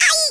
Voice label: OUCH, Speech Italian; Worms Armageddon

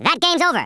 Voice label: victory, US Sports

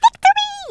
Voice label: "victory", Brooklyn; Worms Armageddon

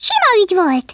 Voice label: FLAWLESS, Speech Hungarian; Worms Armageddon

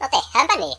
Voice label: "yes sir", Finnish; Worms Armageddon

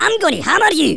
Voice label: "Dragon punch", Angry Scots; Worms Armageddon

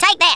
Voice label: DRAGON PUNCH, Australian; Worms Armageddon

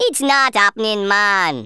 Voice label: "oi nutter", Rasta

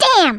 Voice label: "bummer", Brooklyn; Worms Armageddon

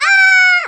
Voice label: bungee, Hispanic; Worms Armageddon

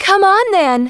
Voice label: "Come on then", Smooth Babe; Worms Armageddon

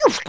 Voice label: OOFF, Wacky

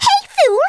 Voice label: "stupid", Scouser

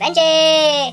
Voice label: "bungee", Finnish; Worms Armageddon

